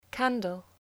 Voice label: "candle", English